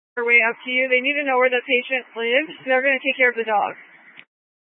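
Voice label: Incident Tactical, scanner audio recording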